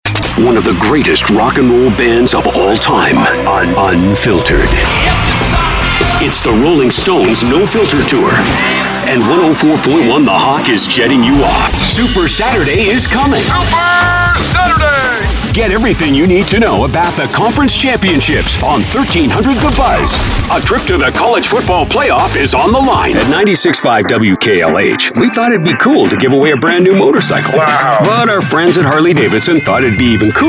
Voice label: imaging event